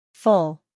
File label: "full", English